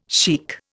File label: "sheikh", Indian